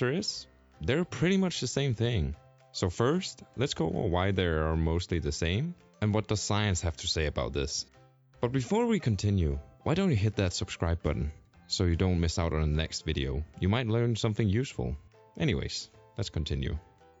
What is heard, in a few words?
audiobook reading english